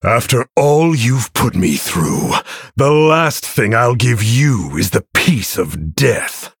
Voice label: Male Human